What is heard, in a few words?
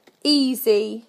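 "easy", British English; PictureDictionary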